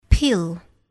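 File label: "pill", English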